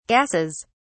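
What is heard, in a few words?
"gases", British English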